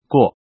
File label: pass male